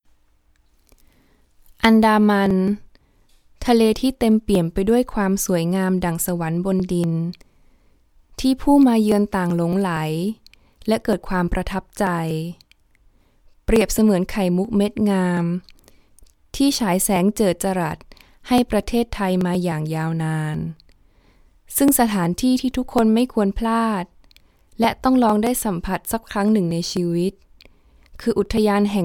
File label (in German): Thailand W2, voiceactors